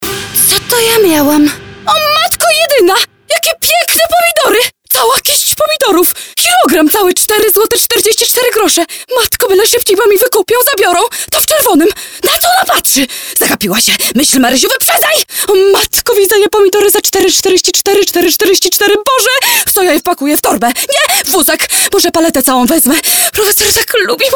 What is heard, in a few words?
Female VO Commercial